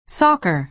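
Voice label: "soccer", American English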